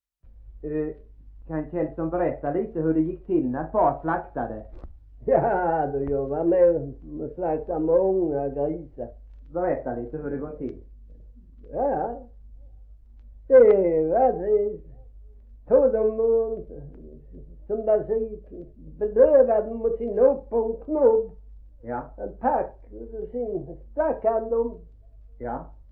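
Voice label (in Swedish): Gråmanstorp Skåne, Svenska sagor dialekter; Folkminnen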